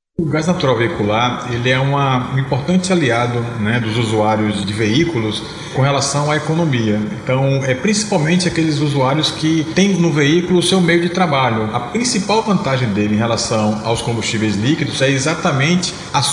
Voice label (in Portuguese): Sonora diretor comercial; Cigas